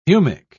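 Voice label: "humic", English, British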